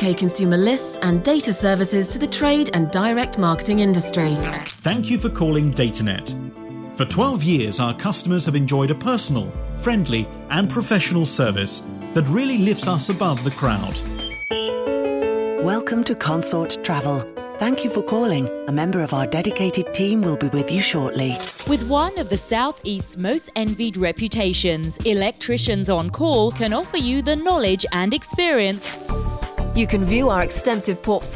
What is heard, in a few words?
On Hold; voice-overs